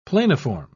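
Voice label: "planiform", British English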